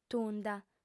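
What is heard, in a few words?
"tunda", Sardinian, female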